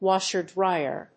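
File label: "washer-dryer", English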